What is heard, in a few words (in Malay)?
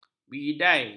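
"bidai", Malay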